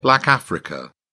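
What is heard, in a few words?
"black africa", British English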